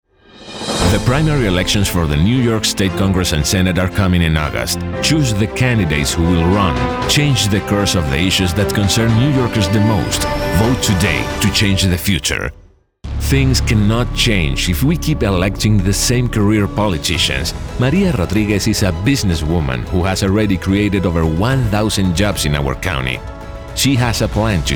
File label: GOP Voiceover English, Political, Male; Political GOP Voices English MALE